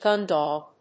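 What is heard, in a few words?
"gun dog", English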